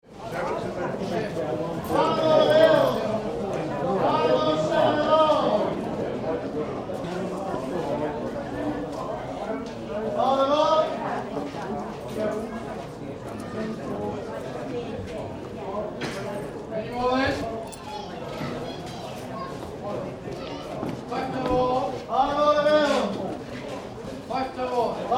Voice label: Greyhound Stadium Bookies calling Odds; Crowd background; Racing